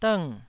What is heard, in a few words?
"dng4", taiwanese syllable